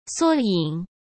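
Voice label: "缩影", Mandarin Chinese; hsk; chinese-flashcards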